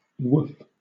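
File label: "woof", British English; pronunciations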